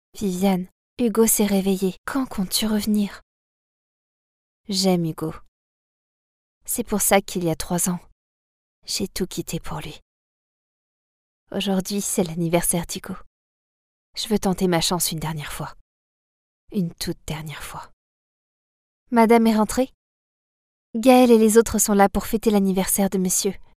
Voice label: locutora francesa french dubbing